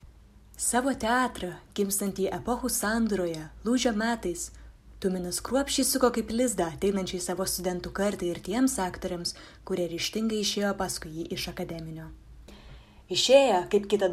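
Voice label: General, Lithuanian; GoLocalise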